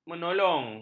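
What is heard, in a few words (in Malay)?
"menolong", Malay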